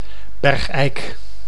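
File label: "Bergeijk", Dutch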